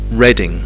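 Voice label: "reading", English; fonetica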